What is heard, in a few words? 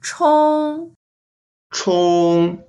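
"chong1", Mandarin Chinese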